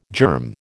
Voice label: "germ", American